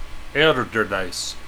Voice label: "ALDERDICE" old